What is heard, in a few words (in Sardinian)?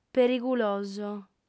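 "periguloso", female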